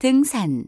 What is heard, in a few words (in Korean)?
korean climbing; samsung YP-U5; Audio User Interface